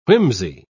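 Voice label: "whimsey", British English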